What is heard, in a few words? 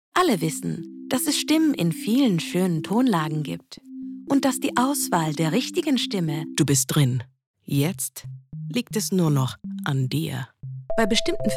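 German Mix Music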